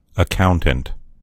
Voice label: "accountant", en US; gcompris

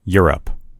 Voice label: "europe", en_US; gcompris; geography

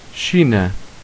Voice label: "china", pt; gcompris; geography

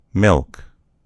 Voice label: "milk", en US; gcompris

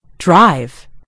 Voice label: "drive", en US; gcompris

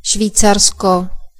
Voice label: "switz", cs; gcompris; geography

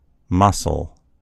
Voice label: "muscle", en US; gcompris

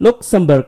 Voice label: "luxembourg", id; gcompris-data; geography

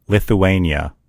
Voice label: "lithuania", en US; gcompris; geography

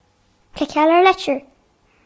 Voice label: click on letter, ga; gcompris-data

